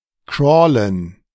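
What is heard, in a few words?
"crawlen", German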